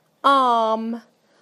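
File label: "arm", British English; PictureDictionary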